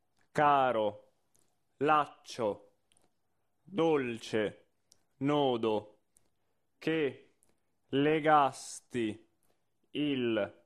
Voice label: monotono; Caro laccio

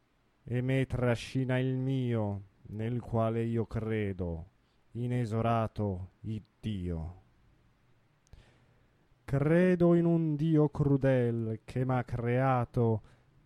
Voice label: espressivo; Otello; Credo in un dio crudel

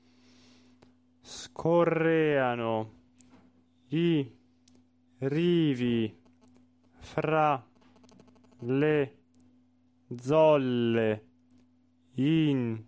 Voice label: Piangea cantando monotono; Otello

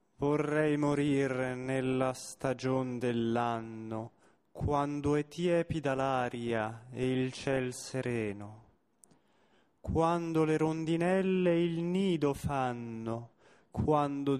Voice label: espressivo

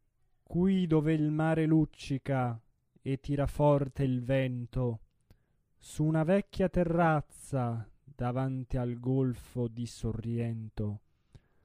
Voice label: Caruso espressivo

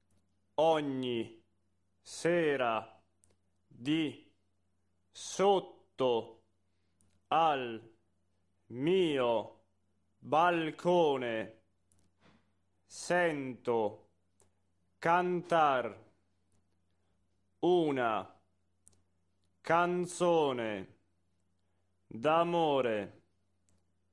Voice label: monotono

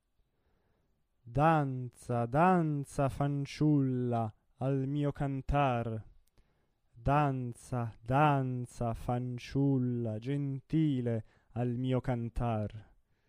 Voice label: Danza fanciulla gentile espressivo

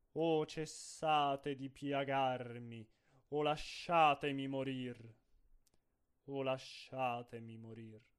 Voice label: O cessate di piagarmi espressivo

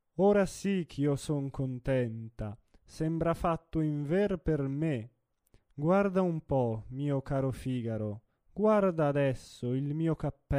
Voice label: espressivo; Le nozze di Figaro

